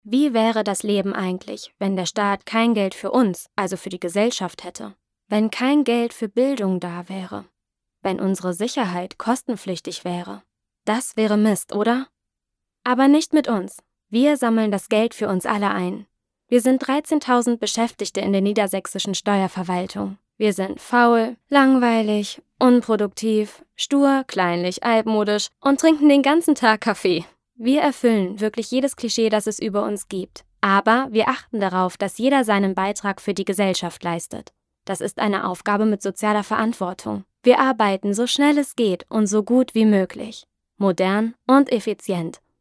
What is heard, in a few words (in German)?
voiceover deutsch; sprecher